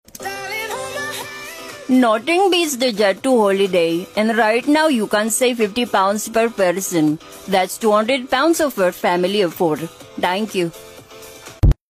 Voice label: indian; jet2hoilday

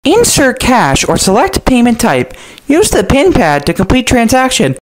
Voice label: insert cash or select payment type funny sound

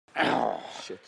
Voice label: urgh, jp

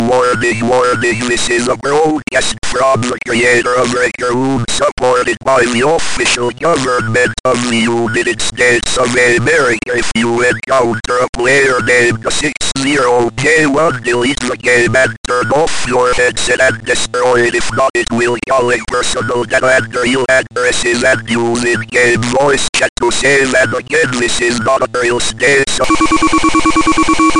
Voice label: creepy warning

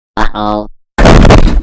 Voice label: uh oh

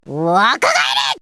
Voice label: yell; necoarc

